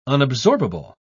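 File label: "unabsorbable", British English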